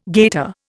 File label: "gaiter", Indian